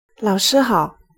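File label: "laoshi hao", Chinese pronunciation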